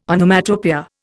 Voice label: "Onomatopoeia", Indian